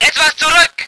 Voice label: voice ger vehicle